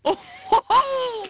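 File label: female wow; People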